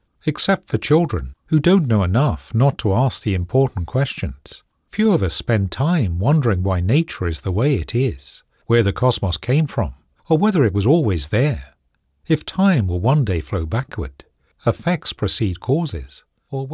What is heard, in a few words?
Non Fiction, voiceovers